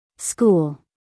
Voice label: "school", US female